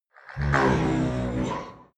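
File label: demon short expressions, creatures; kreaturen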